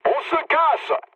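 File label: French enemy detected mood low; War Thunder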